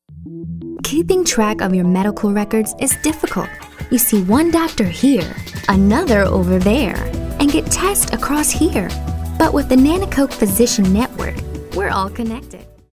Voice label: friendly